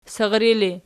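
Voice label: "sirri" ergative, Archi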